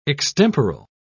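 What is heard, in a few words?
"extemporal", British English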